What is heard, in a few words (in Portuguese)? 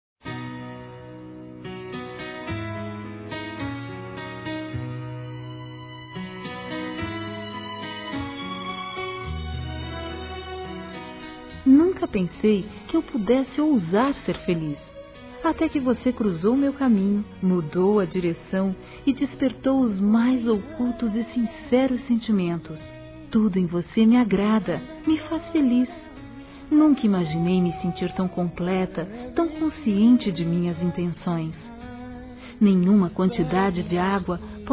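HOMENAGEM A MÃE, feminino; Telemensagens; Telemensagens 2017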